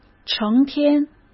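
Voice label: "成天", Mandarin Chinese; hsk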